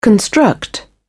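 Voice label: "construct", English, British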